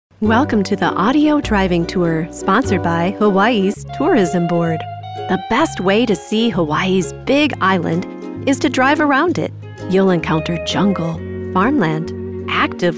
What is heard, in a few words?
Narration